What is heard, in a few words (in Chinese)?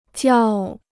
"较", Chinese